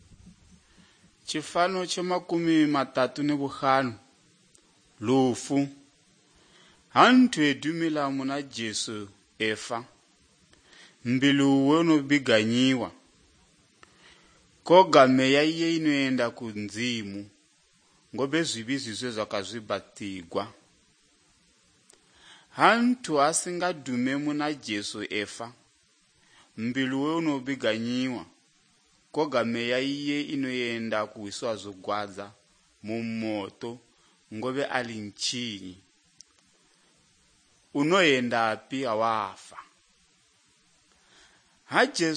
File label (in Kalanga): good news kalanga lilima, drama